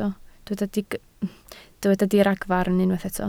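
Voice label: "diragfarn" Welsh, spoken word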